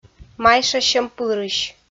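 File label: "майшасьӧмпырысь", Komi, female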